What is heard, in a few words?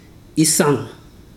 walk, ibibio